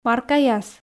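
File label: "паркаяс", Komi, female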